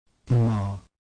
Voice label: long m, consonant